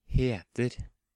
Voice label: "Heter", Norwegian